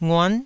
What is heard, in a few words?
"nguan9", taiwanese syllables